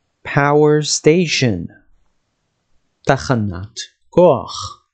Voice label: "power station" – "תחנת כוח", dictionary

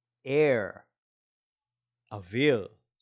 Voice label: "air" – "אוויר"

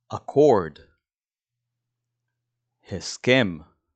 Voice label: "accord" "agree" "הסכם", dictionary

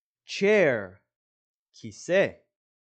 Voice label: "chair" – "כיסא"

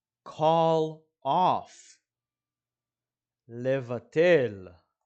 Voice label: "call off" – "לבטל"

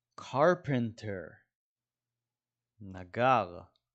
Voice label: "carpenter" – "נגר"

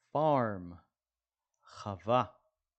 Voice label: "farm" – "חווה"

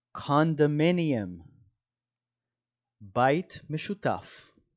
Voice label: "condominium" – "בית משותף"

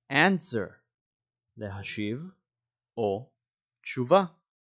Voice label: "answer" – "להשיב תשובה"

